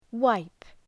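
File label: "wipe", English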